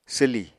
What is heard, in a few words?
"swlì", Apatani